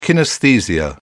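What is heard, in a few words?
"kinaesthesia", British English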